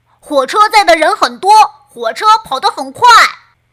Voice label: 童声 小男孩

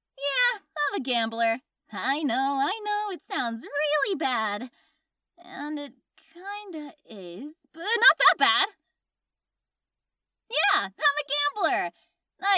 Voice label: 外籍英语女-角色女赌徒

